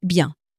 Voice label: "bien", common french words

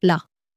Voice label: "la", French

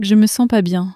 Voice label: "je me sens pas bien", French

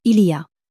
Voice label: "il y a", French

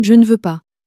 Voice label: "je ne veux pas", French

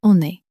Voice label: "on est", French

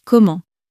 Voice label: "comment", French

